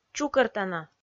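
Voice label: "чукӧртана", Komi, female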